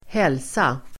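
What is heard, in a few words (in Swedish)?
"hälsa", Swedish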